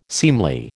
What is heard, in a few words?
"seemly", American